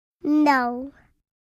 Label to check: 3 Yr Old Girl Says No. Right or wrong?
Right